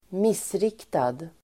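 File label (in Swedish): "missriktad", Swedish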